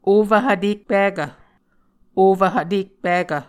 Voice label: "ofv hvtekpikv", Muscogee